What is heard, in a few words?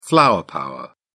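"flower power", British English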